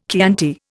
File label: "Chianti", Indian